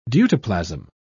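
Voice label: "deutoplasm", British English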